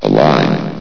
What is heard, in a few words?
"aligned", vox; source engine; hl1; video games